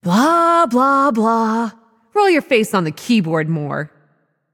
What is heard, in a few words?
taunt directed; kingarthur